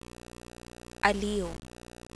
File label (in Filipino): aliw, Diphthongs, tagalog; aliw (amuse); Dipthongs